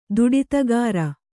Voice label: "ದುಡಿತಗಾರ", kannada

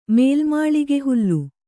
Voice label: "ಮೇಲ್ಮಾಳಿಗೆಹುಲ್ಲು", Kannada

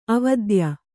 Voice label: "ಅವಿದ್ಯೆ", Kannada

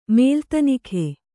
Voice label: "ಮೇಲ್ತನಿಖೆ", Kannada

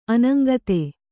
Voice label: "ಅನಂಗತೆ", Kannada

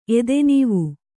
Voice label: "ಎದೆನೀವು", Kannada

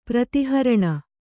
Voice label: "ಪ್ರತಿಹರಣ", Kannada

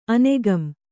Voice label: "ಅನೆಗಂ", Kannada; anegam; till; upto (certain time).

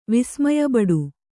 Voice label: "ವಿಸ್ಮಯಬಡು", Kannada

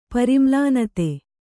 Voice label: "ಪರಿಮ್ಲಾನತೆ", Kannada

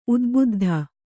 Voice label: "ಉದ್ಬುದ್ಧ", kannada

